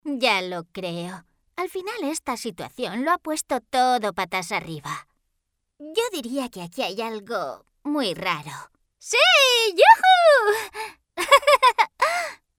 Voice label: locutora infantil doblaje dibujos animados

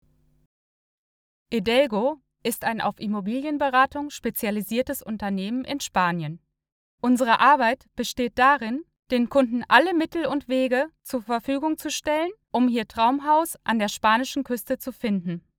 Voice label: locutora alemana

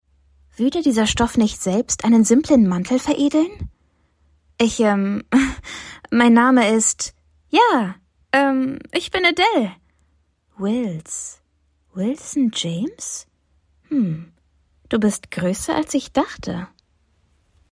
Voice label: locutora alemana german voiceover

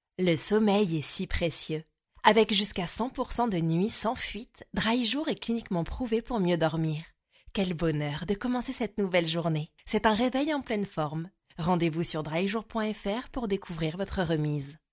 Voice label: locutora francesa french voiceover

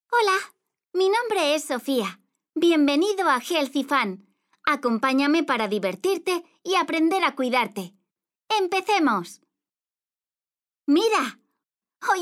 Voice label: locutora niña spanish child voiceover

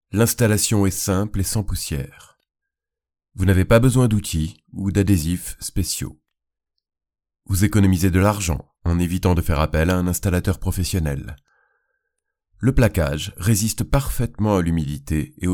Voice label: locutor francés french voiceover

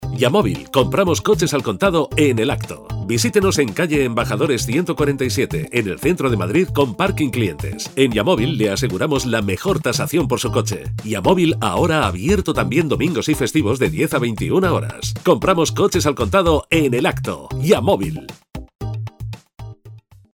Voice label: locutor spanish voiceover anuncio radio; Campaña de publicidad

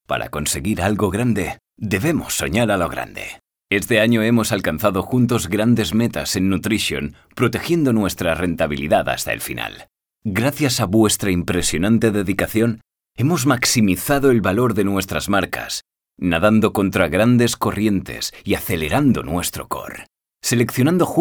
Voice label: locutor espanol spanish voiceover

